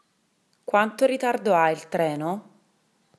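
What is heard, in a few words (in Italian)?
"Ritardo", Italian; italybytrain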